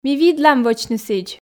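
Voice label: Komi, female; ми видлам вӧчны сідз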